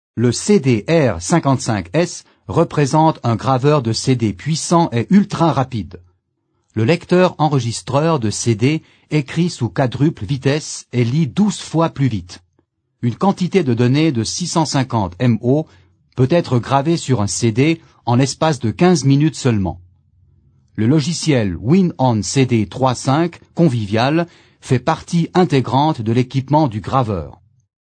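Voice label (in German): French, Kommentar Neutral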